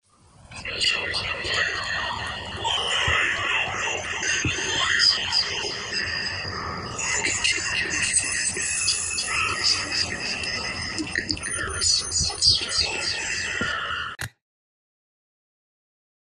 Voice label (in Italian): sussurri inquietanti suoni horror; spaventosi; whispering